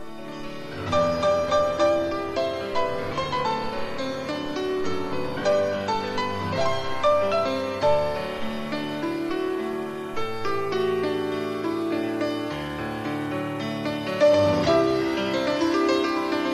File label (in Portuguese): dia do amigo masc amigo, voz masculina